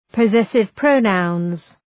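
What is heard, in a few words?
"possessive pronouns", English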